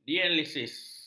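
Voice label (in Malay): "dialisis", Malay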